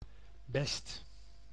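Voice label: "best", Dutch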